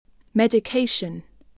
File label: "medication", spelling voice